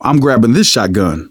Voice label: take auto shotgun, survivor voice manager; Left 4 Dead 2; left4dead2 dlc3